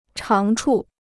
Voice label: "长处", Chinese